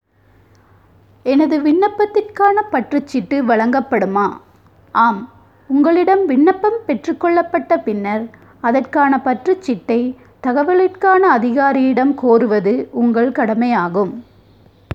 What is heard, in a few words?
Tamil